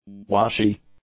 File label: "washy", English; adjectives